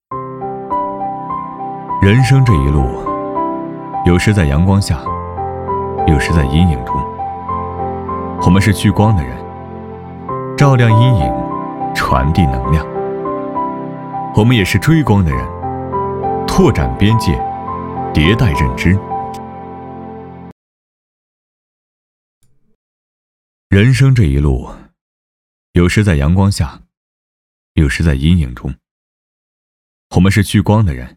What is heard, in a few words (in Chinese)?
男,走心,人生